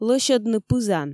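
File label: "лӧсьӧдны пызан", Komi, female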